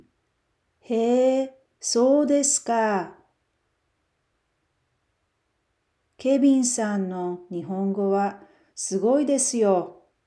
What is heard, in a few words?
japanese Dialogue